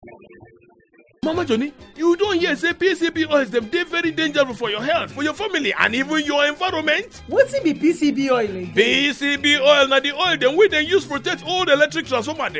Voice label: Radio jingle in pidgin language